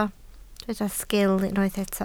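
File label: "sgil" Welsh, spoken word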